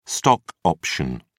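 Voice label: "stock option", English, British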